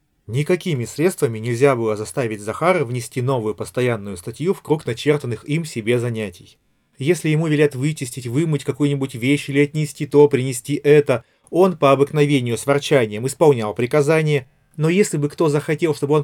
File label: Recorder; Запись на профессиональный рекордер; бюджетного саундбара Redmi ASB02A